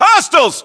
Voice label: US threat infantry generic, battlechatter, english